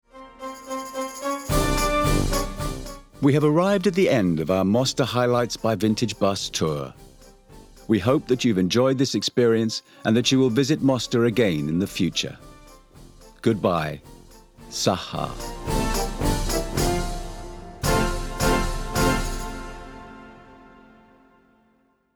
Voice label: CONCLUSION ENGLISH